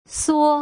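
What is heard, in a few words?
"suo", Putonghua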